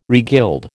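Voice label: "regild", American English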